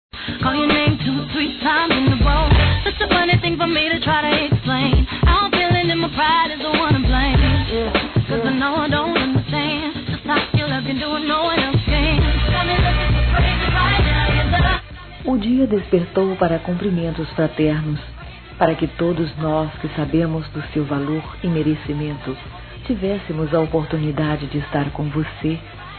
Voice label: NEUTRO PLURAL F

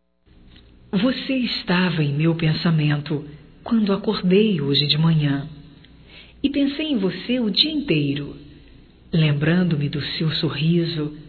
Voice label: OBRIGADO POR ONTEM, Românticas fem

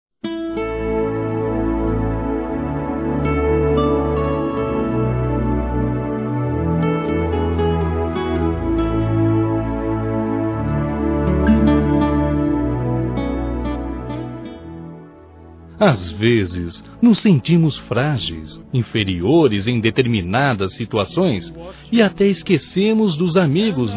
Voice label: Você tem Valor Masculino; Telemensagens; Otimismo

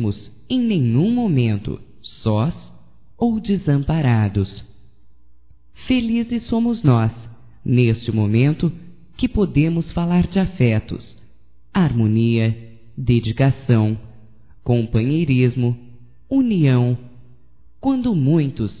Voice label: AMIZADE PARA AMIGO(F), Telemensagens, fem; Amizades; Telemensagens 2017

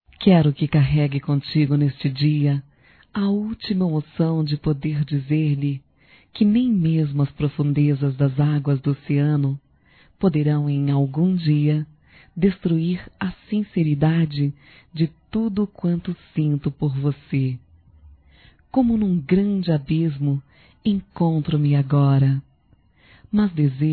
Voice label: Feminino; Amor Sincero Feminino

